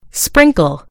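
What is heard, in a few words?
"sprinkle", British English; gcompris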